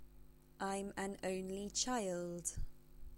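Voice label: English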